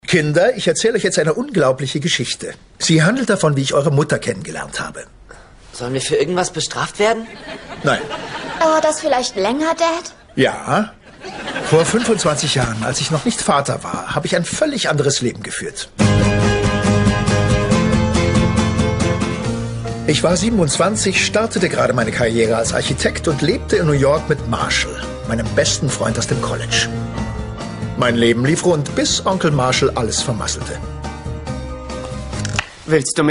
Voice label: Fremdtake Freundlich, voices

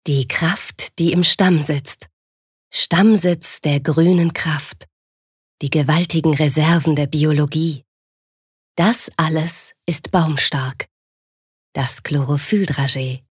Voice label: Werbung Sanft

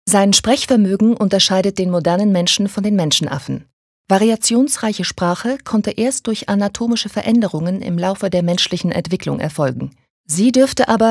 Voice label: Sprache Neutral

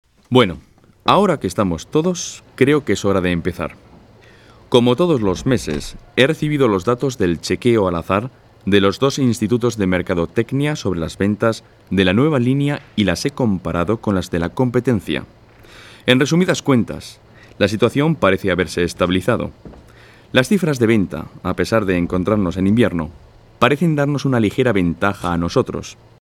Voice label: ESP Kommentar Neutral

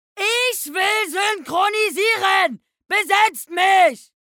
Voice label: Fremdtake Wütend

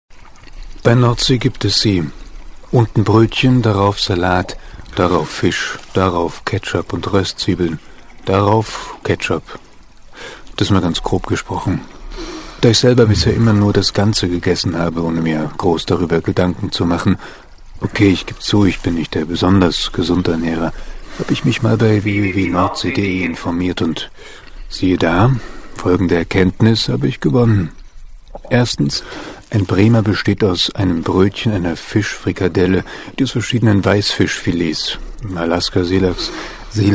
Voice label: Werbung Sanft

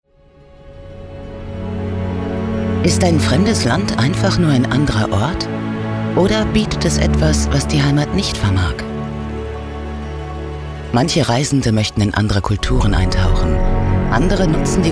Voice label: Werbung Sanft

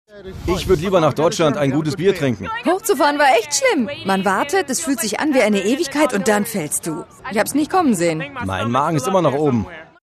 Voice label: Dynamisch; Fremdtake

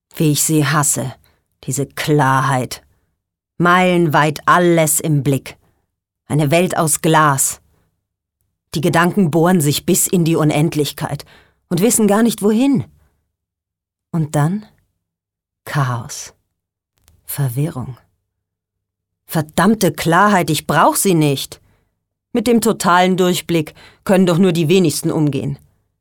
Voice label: Schauspiel Energisch

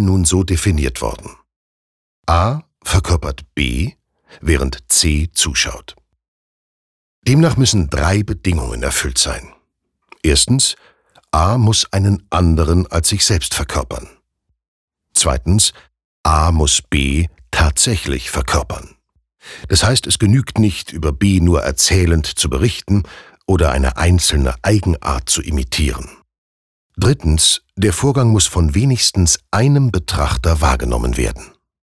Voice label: Schauspieler Neutral

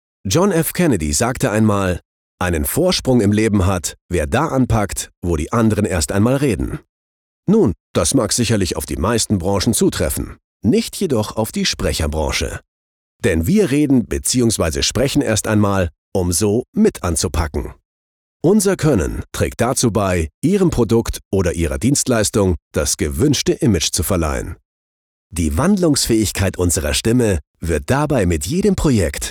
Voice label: Dynamisch

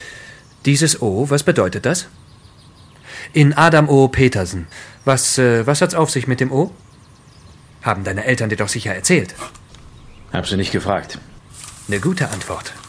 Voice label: Dynamisch

